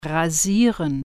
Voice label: "shave", German

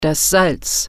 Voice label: "salt", German

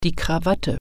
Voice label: "tie", German

